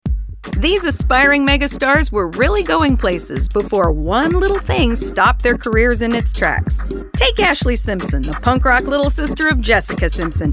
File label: Narration